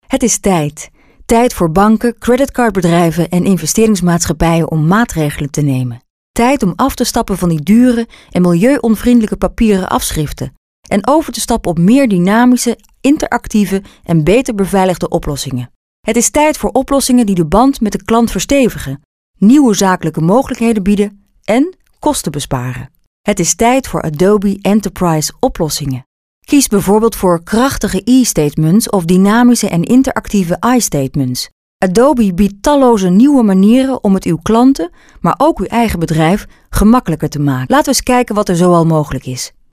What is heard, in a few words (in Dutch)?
AV commentaar